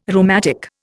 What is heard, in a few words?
"rheumatic", Indian